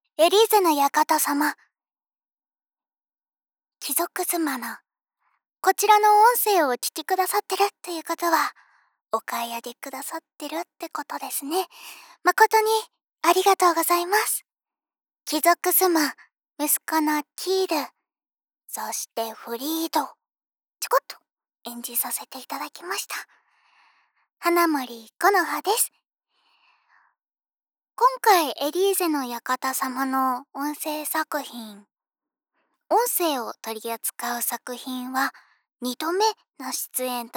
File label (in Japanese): フリートーク